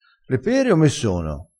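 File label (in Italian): "sciupa", dialettale, crespinese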